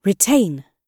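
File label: "retain", British English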